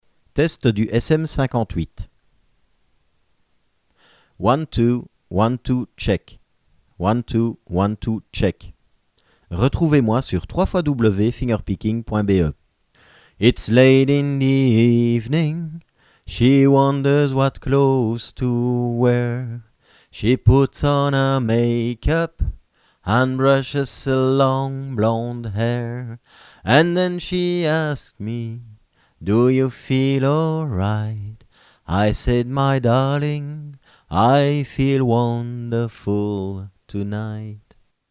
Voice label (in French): SM58 voix